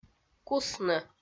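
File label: "кусны", Komi, female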